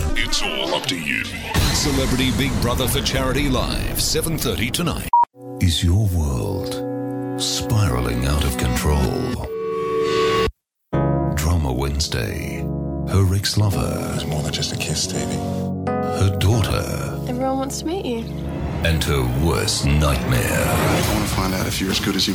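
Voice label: english Australian male commercial; voice demos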